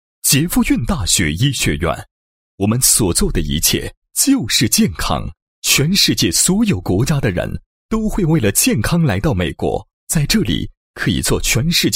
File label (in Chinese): 男-学校-某外国大学医学院宣传介绍, 配音案例